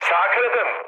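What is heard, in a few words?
Turkish right wing, mood med, speech; War Thunder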